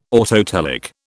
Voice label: "autotelic", British